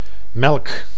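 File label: "melk", Dutch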